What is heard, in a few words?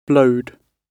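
"blowed", British English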